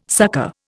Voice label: "succor", Indian